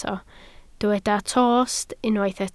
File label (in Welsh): "tost", Welsh